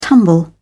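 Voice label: "tumble", British English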